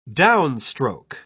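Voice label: "downstroke", British English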